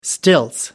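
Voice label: "stilts", British English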